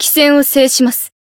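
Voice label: encounter, Japanese; felmina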